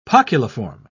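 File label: "poculiform", British English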